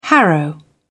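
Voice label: "harrow", British English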